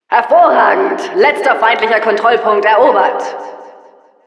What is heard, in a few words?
Announcer success captured last control de